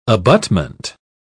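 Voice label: "abutment", English, British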